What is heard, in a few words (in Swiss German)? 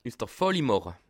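"fali", Bas Rhin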